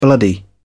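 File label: "bloody", British English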